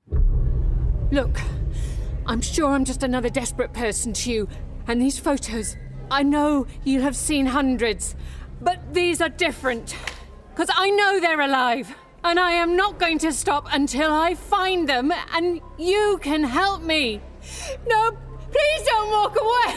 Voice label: Gaming Everywoman; Gaming Showreel

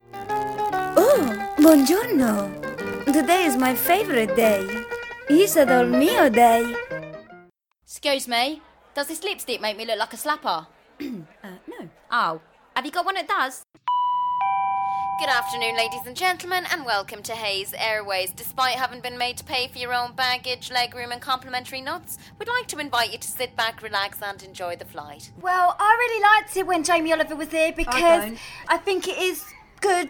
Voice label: Accents; Accents Showreel

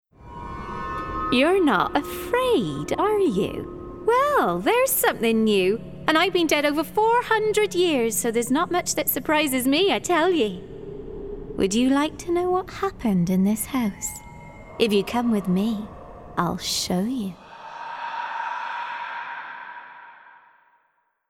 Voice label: Gaming Ghostly Maid; Ghostly Maid (West Country)

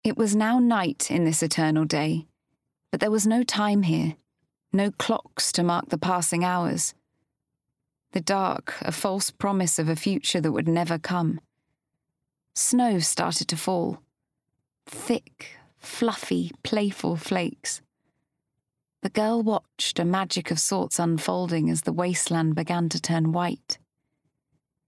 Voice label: Bookread; Double Shadow (Northern/Neutral accent)

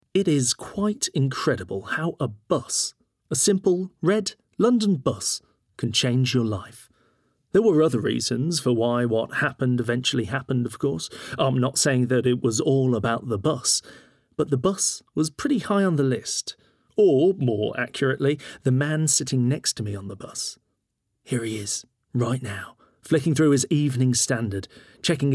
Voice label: Bookread; Yes Man

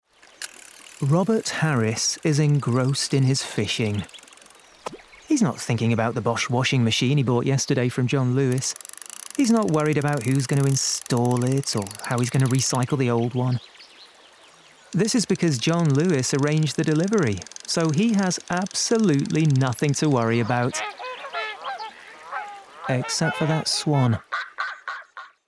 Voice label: Neutral; John Lewis; Commercial Showreel

